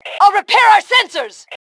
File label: Self Repair Sensors, Female